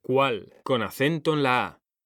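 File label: "CUÁL", Spanish; mekanta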